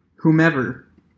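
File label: "whomever" English, US; pronunciations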